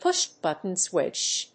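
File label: "pushbutton switch", English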